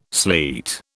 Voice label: "sleet", British

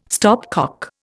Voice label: "stopcock", Indian

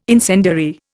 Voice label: "incendiary", Indian

